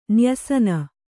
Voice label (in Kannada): "ನ್ಯಸನ", Kannada